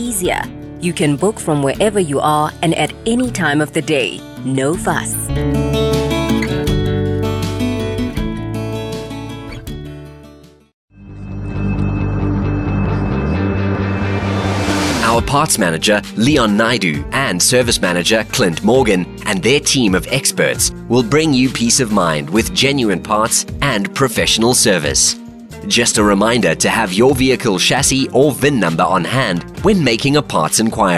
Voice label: Automotive, Messages On Hold; on hold custom message; Captivate